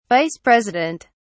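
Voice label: "vice president", British English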